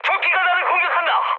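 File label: Korean aircraft damaged mood high; speech; War Thunder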